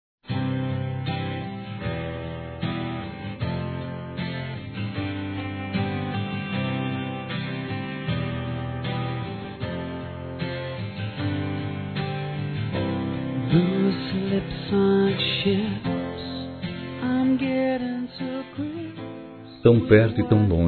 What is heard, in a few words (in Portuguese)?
Amor distante Masculino, Telemensagens